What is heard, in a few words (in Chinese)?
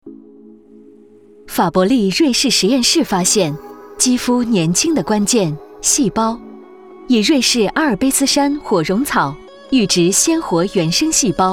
广告化妆品干练自信; 法伯丽